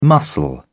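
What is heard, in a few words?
"muscle", British English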